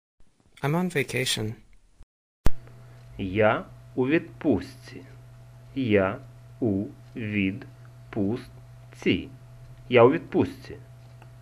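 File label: Ukrainian Im on vacation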